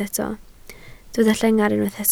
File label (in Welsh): "llengar", Welsh